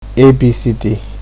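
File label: "abcd", Nigerian Pidgin, male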